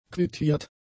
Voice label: "quittiert", German; adjectives

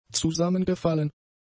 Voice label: "zusammengefallen", German; adjectives

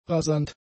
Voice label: "rasant", German; adjectives

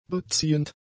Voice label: "beziehend", German; adjectives

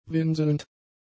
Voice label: "winselnd", German; adjectives

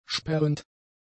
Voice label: "sperrend", german; adjectives

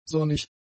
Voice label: "sonnig", german; adjectives

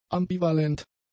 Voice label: "ambivalent", German; adjectives

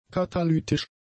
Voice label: "katalytisch", German; adjectives

